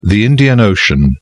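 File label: "Indian Ocean", British English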